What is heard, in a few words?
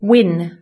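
"win", English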